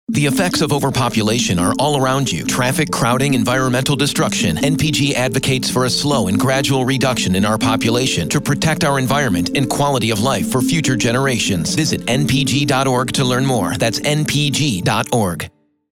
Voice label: Radio Ad; iHeartRadio; Negative Population Growth; iHeartRadio's 2024 Charity Directory